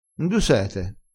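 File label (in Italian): "sete", dialettale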